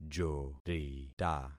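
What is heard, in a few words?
"jorita", concatenated syllables; Affixing experiment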